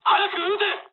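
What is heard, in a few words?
Japanese attack position mood low; War Thunder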